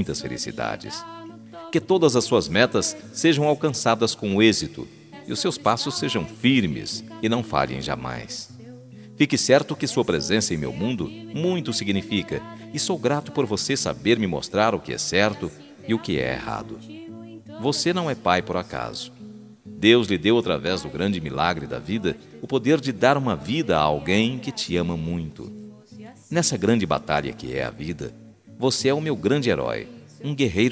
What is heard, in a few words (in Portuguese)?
ANIV PAI, telemensagem aniversario pai